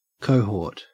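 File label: "cohort", Australian English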